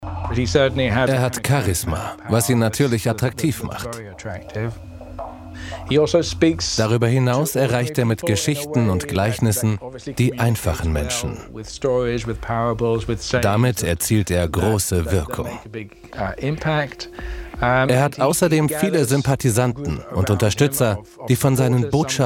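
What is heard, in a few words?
German male Documentary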